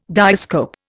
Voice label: "diascope", Indian

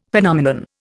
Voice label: "Phenomenon", Indian; Dictionary

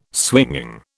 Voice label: "swinging", British

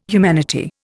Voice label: "humanity", Indian

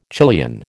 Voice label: "Chilean", American

